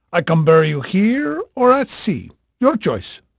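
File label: Portuguese male, voice; Pirates Of The Caribbean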